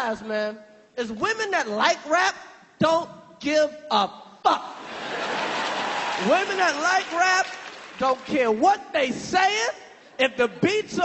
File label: stand up defending rap music; chris rock